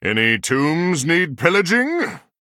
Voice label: Male Human Start; en

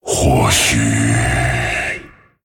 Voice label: Male Dragon Thinking; 思考1; 如果……; 风暴巨龙迦拉克隆（英雄）; What if...; zh

